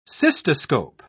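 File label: "cystoscope", British English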